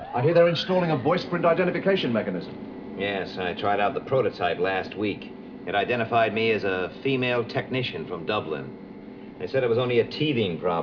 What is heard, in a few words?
a female technician from Dublin; Confetti Check A OK